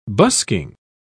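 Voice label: "busking", British English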